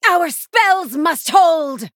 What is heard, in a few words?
Sorcerer Female Night Elf Sorcerer Attack